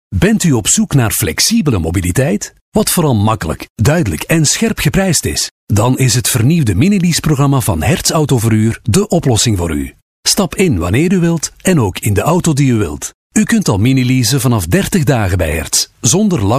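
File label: flemish male narration; dutch; voice demos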